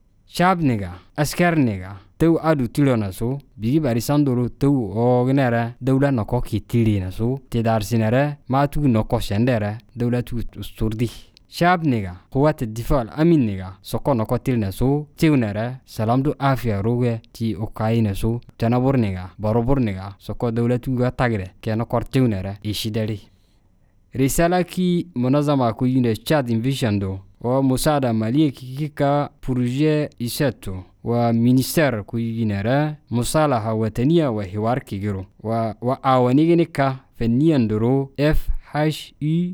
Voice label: Zakhawa Message